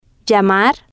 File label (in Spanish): "llamar", spanish